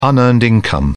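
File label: "unearned income", British English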